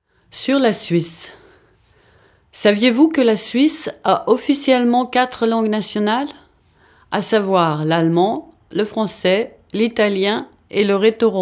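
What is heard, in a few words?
French; schweiz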